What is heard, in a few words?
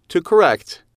"to correct", English